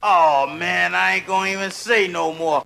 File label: "aw man i ain't even going to say no more", g-rated swears; Voice; expletives